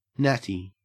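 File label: "natty", English, Australian accent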